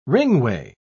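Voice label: "ringway", British English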